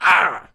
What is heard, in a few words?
hurt, pain; player; Dystopia